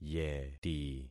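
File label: "yeti", concatenated syllables; Affixing experiment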